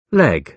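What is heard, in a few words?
"leg", British English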